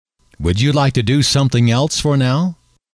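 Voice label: would you like to do something else for now, male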